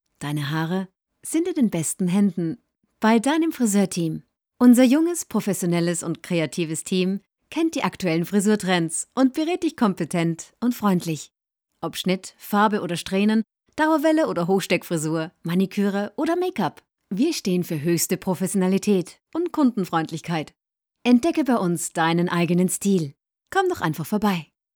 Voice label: online ad DE natural